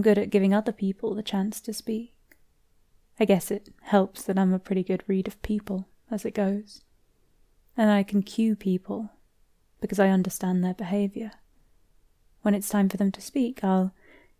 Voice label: conversation whitenoise